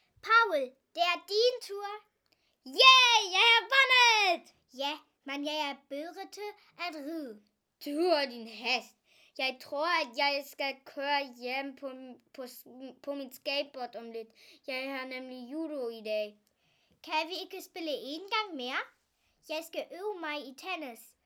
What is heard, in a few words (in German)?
Dialog